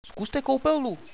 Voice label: bathroom, radio bot